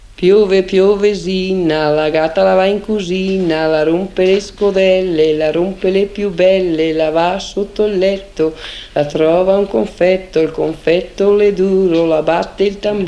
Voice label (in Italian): filastrocca; basso veneto; piove piovesina